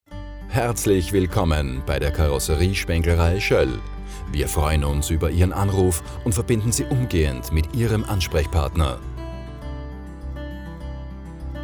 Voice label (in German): Begruessung vor Gespraechsannahme